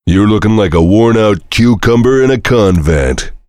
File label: heavy cheers; tf; duke